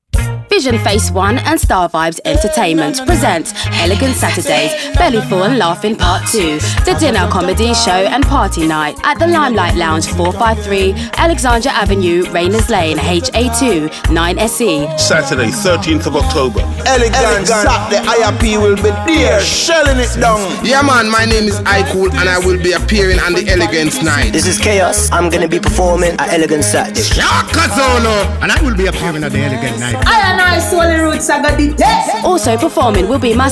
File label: RADIO ADVERT